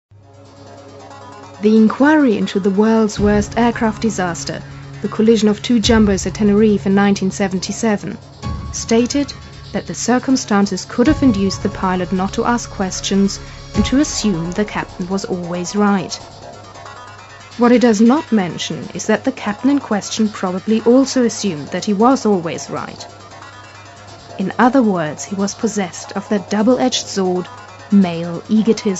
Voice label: F, German English, Narration